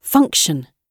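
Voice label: "function", British English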